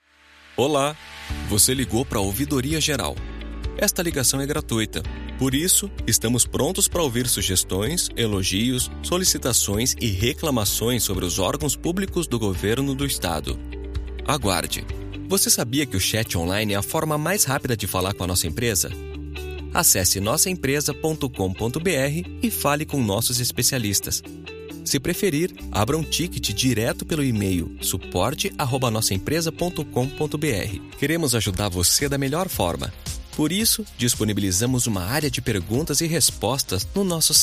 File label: portuguese brazilian ivr; dublador brasileiro com sotaque natural; Tenho 40 anos